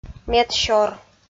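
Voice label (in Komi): "медсёр", Komi, female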